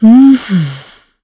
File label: Think, kr; Isolet; kingsraid; voices heroes